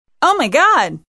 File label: female "oh my god"; Survivor The Interactive Game; Player